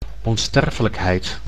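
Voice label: "onsterfelijkheid", Dutch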